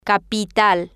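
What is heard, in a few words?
"capital", Spanish, female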